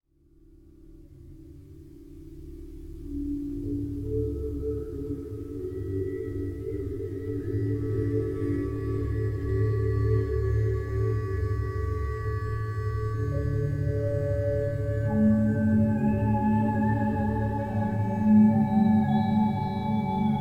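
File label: mystery woman voice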